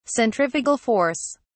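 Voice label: "centrifugal force", British English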